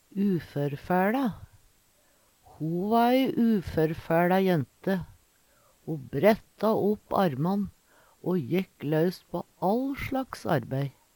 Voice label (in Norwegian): "uførfæLa", Numedalsmål